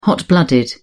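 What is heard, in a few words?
"hot-blooded", British English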